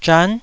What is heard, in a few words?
"zhan9", Taiwanese syllable